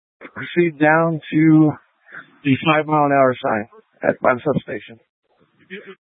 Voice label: Light Rail Emergency, scanner